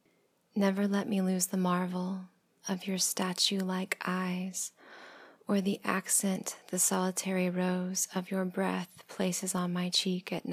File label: [F4A] [Poetry] [Bittersweet] [Sonnet]; Sonnet of the Sweet Complaint by Federico García Lorca